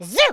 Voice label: jump, French speech